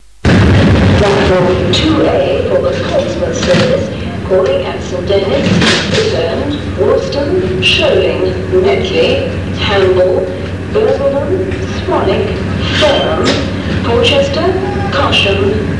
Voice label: Southampton pre recorded platform announcement